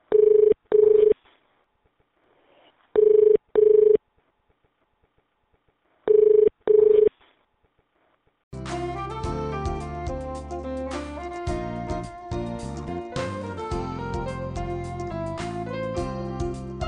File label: Call Queue Female; Kerio Operator; Kerio + GFI; HQ